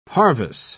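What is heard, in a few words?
"parvis", British English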